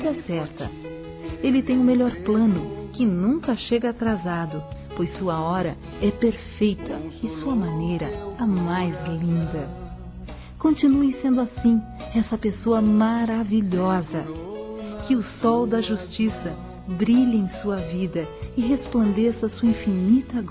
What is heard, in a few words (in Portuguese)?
COMUNHÃO EM DEUS, Gospel, fem; Telemensagens; Telemensagens 2017